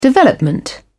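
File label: "development", British English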